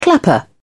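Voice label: "clapper", British English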